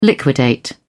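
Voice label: "liquidate", British English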